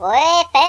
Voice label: "grenade", Finnish; Worms Armageddon